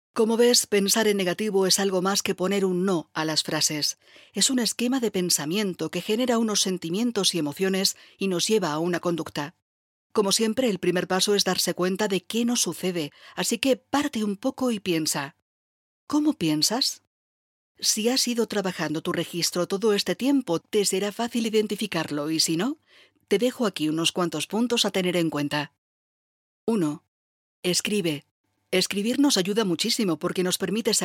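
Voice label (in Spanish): e-learning, Spain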